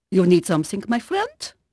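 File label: Dutch female, voice; Pirates Of The Caribbean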